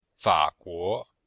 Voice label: "fa3guo2", chinois; Cours-de-Chinois